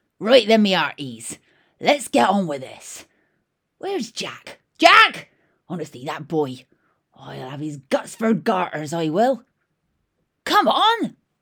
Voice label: FEMALE PIRATE, Voice Over